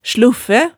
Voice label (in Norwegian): "sluffæ", hemsedalsmaalet